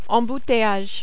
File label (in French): "embouteillage", French